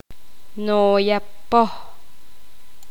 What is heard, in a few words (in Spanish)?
poqomam luna